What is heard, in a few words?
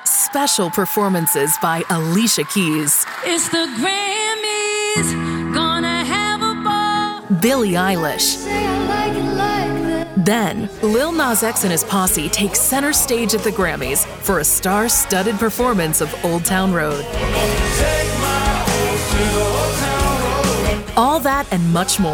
Live Announce, Female Voice Actor